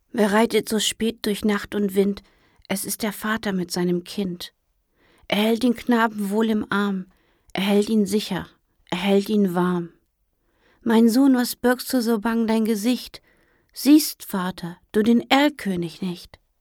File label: female german kids comercial; ideasonora